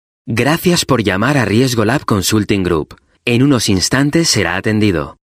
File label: Euro Spanish IVR